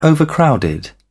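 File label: "overcrowded", British English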